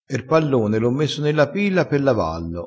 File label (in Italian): "pila", crespinese